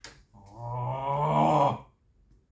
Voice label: dude says aaahhhh